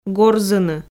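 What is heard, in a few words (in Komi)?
"горзыны", Komi-Zyrian, female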